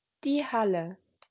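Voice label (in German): "Halle", German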